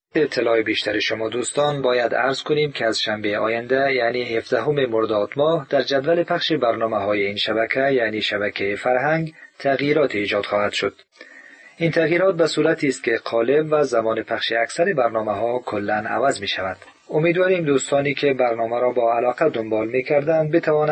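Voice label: Dari Afghanistan male voice over, narrative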